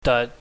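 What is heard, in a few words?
"dat", Cantonese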